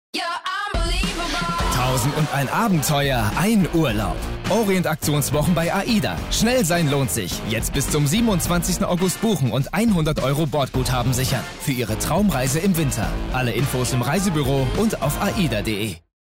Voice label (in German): Werbung Radio; Aida